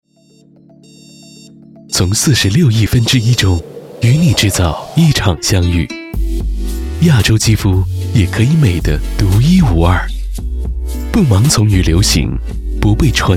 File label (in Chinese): 男 美妆TVC; Funny Elves